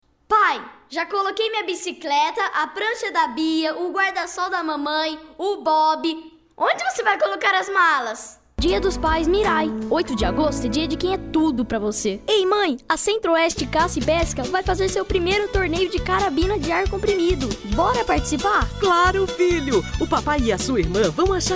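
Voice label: Portuguese boy; children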